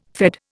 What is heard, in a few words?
"Fed", Indian